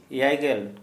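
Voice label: seventy, Santali, male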